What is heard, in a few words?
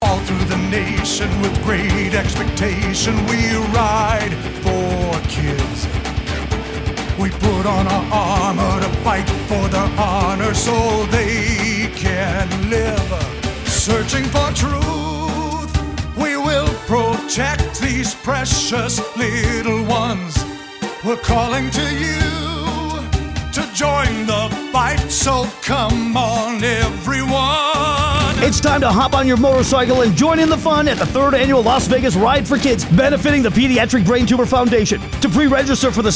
radio psa